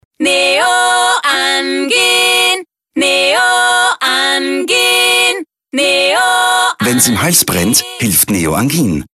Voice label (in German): Sprecherdemo Jingle; Neoangin